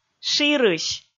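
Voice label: "ширысь", Komi, female; kpv audio dict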